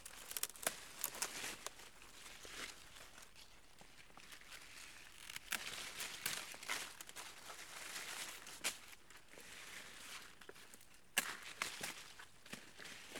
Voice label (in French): espagnol, corbieres; collecte de paroles